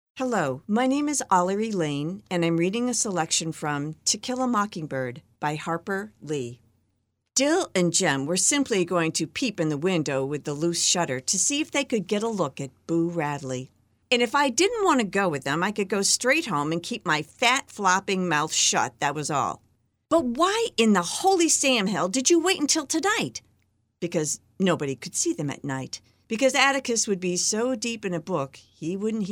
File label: AUDIOBOOK; MOCKINGBIRD